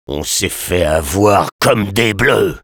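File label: Sniper jeers, french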